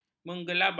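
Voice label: "menggelabah", Malay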